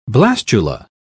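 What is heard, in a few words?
"blastula", British English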